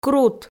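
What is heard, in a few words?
"крут", Komi, female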